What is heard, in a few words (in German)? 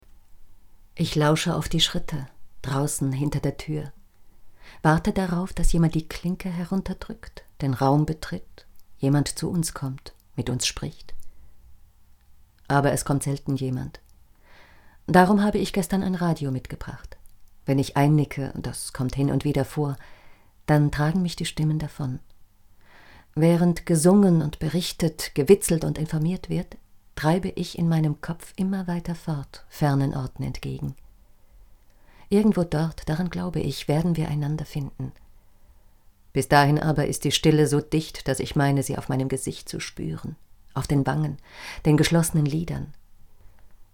Literatur, Sprecherin; Literatur 1